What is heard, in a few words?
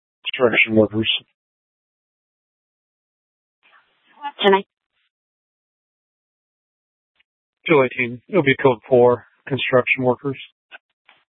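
Police Department North Dispatch, scanner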